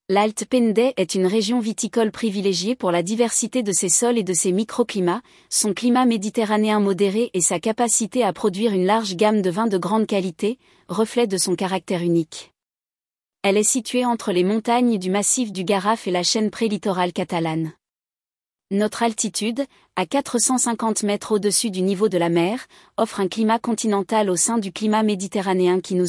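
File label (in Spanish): entorno, French; entornoyvinedos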